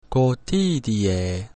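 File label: "cotidie", Latin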